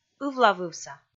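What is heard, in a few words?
"ывлавывса", Komi, female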